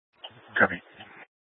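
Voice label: Sheriff Dispatch, scanner audio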